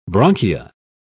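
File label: "bronchia", British English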